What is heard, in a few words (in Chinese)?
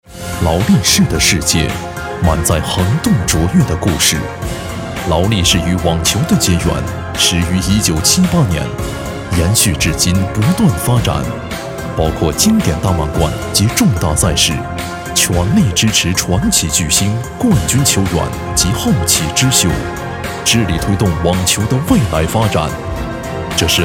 男, 电视广告; 劳力士广告